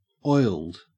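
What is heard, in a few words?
"oiled", Australian English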